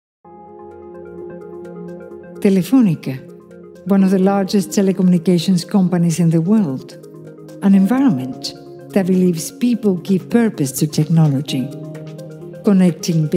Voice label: english corporate videos